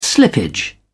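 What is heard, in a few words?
"slippage", British English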